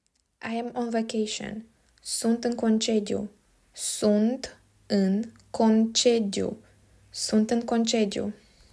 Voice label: "I am on vacation", Romanian